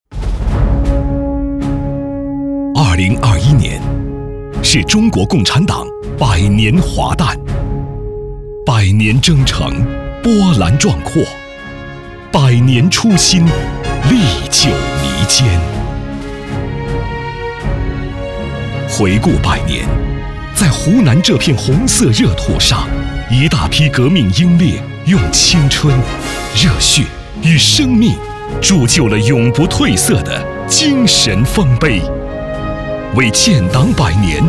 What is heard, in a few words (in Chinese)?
样音 大气 赞扬 专题; 建党百年; 湖南建党百年专题